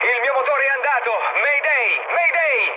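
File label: Italian damaged engine mood med, speech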